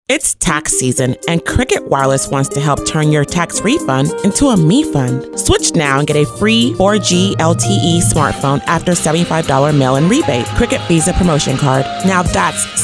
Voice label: Voice Over with music